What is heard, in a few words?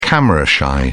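"camera shy", British English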